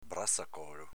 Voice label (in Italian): "brazacolo", dialetto veneto